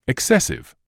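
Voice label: "excessive", British English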